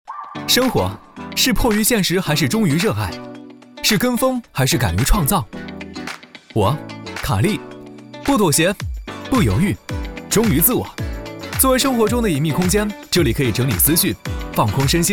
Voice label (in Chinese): 广告阳光